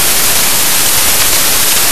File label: too close to mic, male